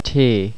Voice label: "tae", ireland; Monophtongs

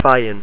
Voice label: "faigheann", ireland; language pronounciation; aigh-, -eidh-, -adh-, -agh

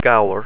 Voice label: "gabhar", ireland; language pronounciation; abh, amh, ogh

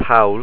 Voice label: "poll", ireland; Monophtongs

